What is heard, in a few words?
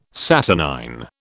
"saturnine", British